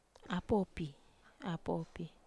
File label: "apopi", wamesa